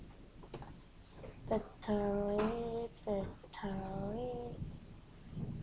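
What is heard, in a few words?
"battery", spoken word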